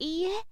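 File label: "iie", woman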